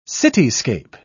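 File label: "cityscape", British English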